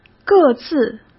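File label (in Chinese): "各自", Mandarin Chinese; chinese-flashcards; hsk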